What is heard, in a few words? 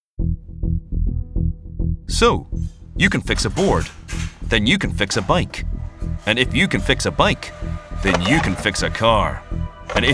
northern irish accent army promo